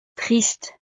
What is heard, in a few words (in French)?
"triste", frances; estados animo